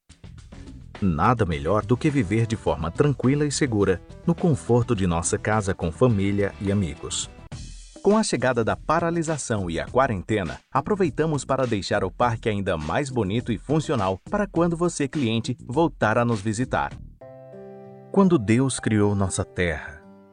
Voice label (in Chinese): 葡萄牙语男 外语 浑厚; 小语种